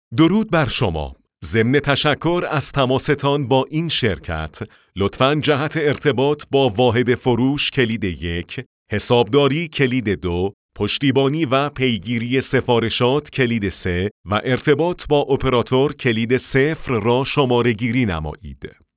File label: men